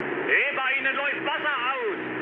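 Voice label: German damaged water mood low, speech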